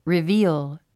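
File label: "reveal", English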